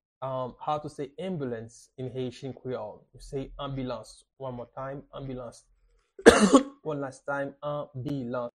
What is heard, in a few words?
How to say Ambulance in Haitian Creole Anbilans pronunciation by a native Haitian Teacher